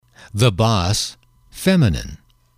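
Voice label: "boss", male, English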